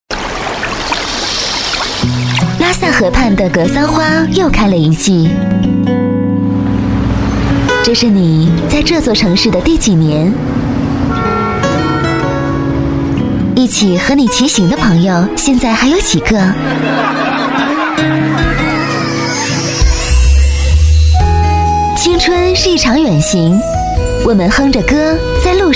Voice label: 女 片花, 国语女声配音; 正青春

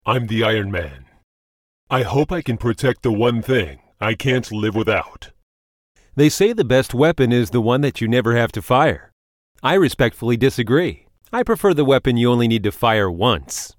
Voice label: 外籍男; Iron Man

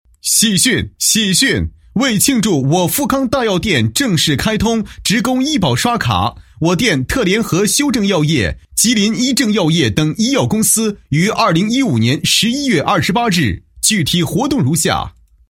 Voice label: 男 促销; 喜讯 喜讯 富康大药店促销

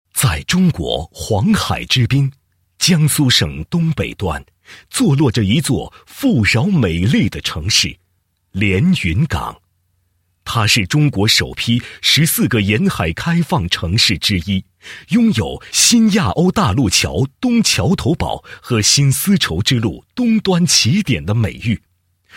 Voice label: 男 企业; 常州市连云港商会

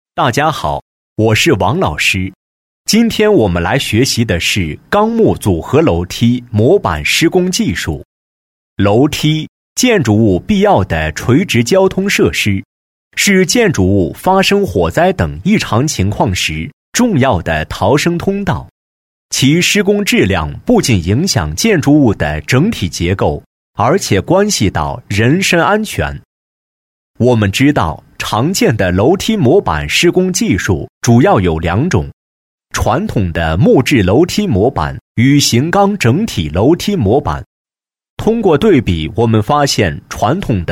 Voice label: 男, 课件; 楼梯施工技术介绍

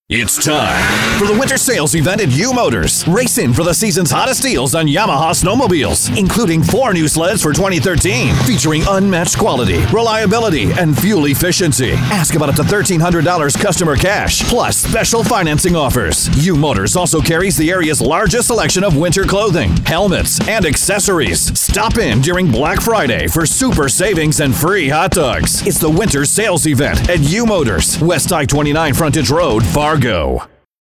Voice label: 外籍男 商业广告 Commercial; UMOTORS

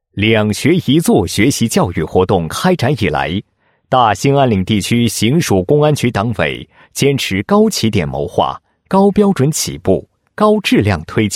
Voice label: 男 公安; 行署公安局 两学一做教育活动

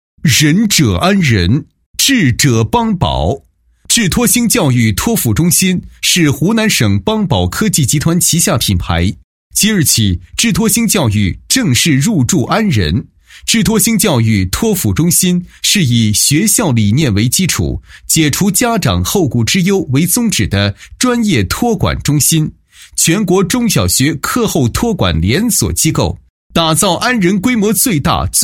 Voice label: 男 教育机构 宣传, 配音; 智托星教育托辅中心宣传

